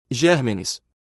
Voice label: Germenes en portugues

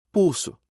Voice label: "Pulso" en portugues

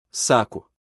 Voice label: Saco en portugues

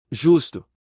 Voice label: Justo en portugues

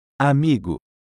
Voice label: Amigo en portugues

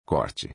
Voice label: Corte en portugues

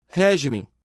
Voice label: Regimen en portugues

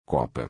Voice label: Copa en portugues

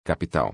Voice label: Capital en portugues

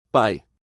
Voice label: "Pie" en portugues

